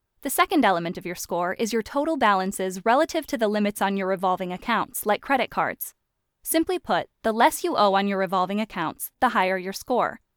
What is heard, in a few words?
Explainer